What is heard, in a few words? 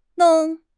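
"long4", Chinese; HSK4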